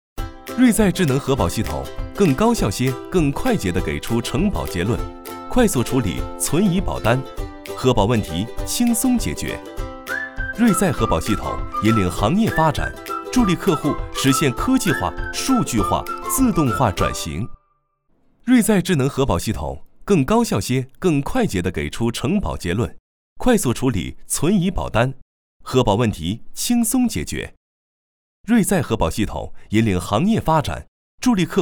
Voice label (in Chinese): 男, MG; 智能核保系统